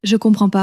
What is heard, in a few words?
"je comprends pas", french; flashcards